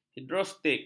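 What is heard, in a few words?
"hidrostatik", Malay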